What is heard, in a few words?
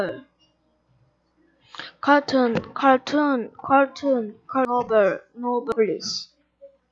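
"cartoon", spoken word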